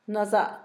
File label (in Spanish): bueno, Zapoteco, Asunción Ocotlan; Adjetivos; INALI; Haspelmath